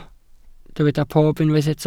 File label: "pob", Welsh